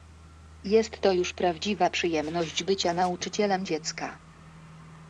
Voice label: thank you in polish